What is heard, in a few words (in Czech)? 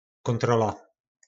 "Kóntrola", nářečí Po našimu